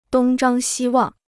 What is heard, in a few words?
"东张西望", Chinese